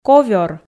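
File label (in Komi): "ковёр", Komi, female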